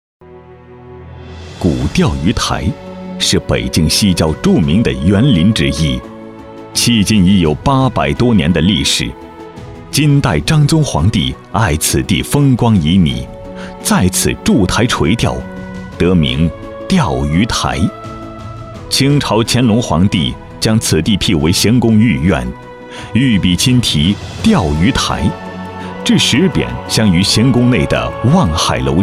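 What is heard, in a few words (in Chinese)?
专题酒大气浑厚; 茅台镇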